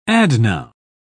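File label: "adnoun", British English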